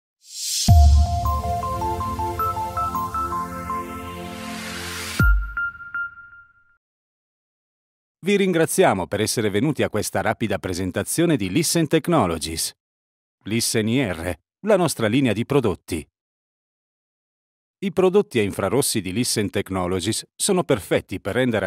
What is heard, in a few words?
Italian Voice Actor Product Video; Listen Technologies